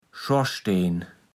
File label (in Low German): "Schorsteen", platt